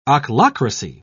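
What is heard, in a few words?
"ochlocracy", British English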